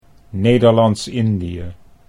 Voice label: "Nederlands Indie", Dutch